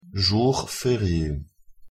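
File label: "jour ferie", French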